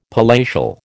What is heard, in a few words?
"palatial", American